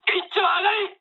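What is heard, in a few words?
player killed enemy mood high, speech japanese; War Thunder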